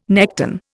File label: "nekton", Indian